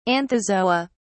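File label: "anthozoa", British English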